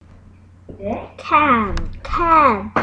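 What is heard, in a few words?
"can", spoken word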